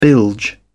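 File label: "bilge", British English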